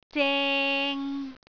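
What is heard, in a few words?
"zeng3", Cantonese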